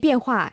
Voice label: "变化", china